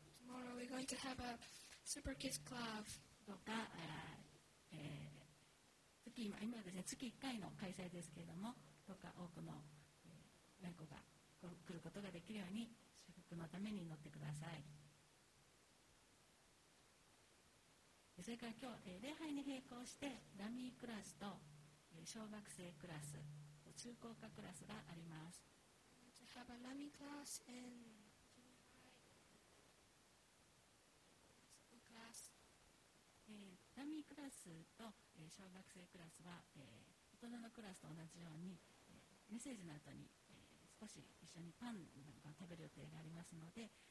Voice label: announce; sunday message 2021